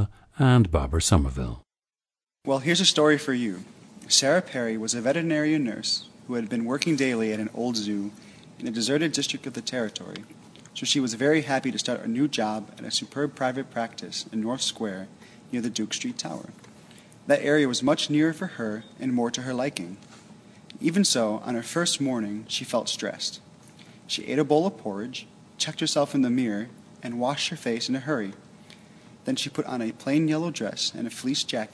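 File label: ohio, International Dialects of English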